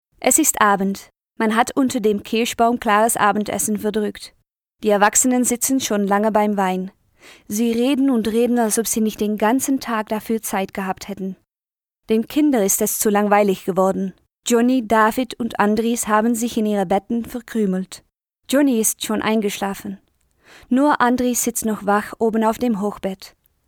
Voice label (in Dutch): Duits